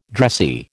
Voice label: "dressy", American

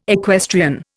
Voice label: "equestrian", Indian

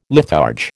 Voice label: "litharge", American

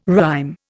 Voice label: "rhyme", Indian

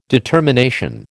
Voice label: "determination", American

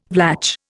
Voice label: "Vlach", Indian

